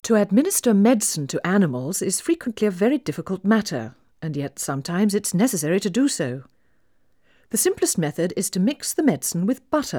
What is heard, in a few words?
Female speech English Mono output, 4bit